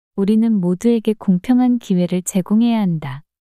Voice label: 우리는 모두에게 공평한 기회를 제공해야 한다 문장 여자

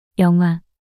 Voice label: "영화" 단어 여자

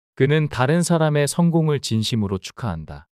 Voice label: 그는 다른 사람의 성공을 진심으로 축하한다 문장 남자

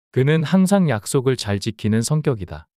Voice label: 문장 남자

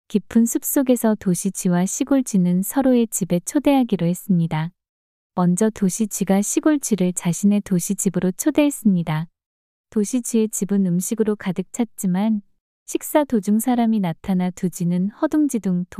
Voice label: 이야기 여자; 도시 쥐와 시골 쥐

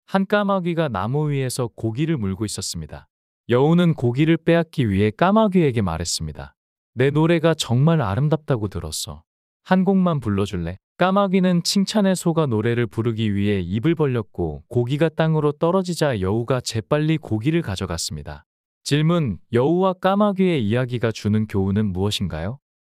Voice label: 이야기 남자; 여우와 까마귀

